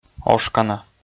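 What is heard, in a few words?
"ошкана", Komi, male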